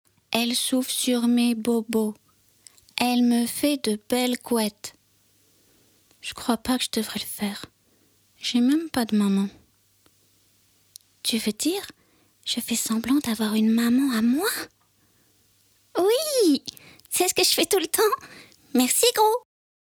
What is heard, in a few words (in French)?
Dessin Animé Poème; voix; Tessiture Voix Médium – Aiguë